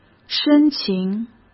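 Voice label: "深情", Mandarin Chinese; chinese flashcards; hsk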